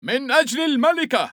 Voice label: arabic Military; age2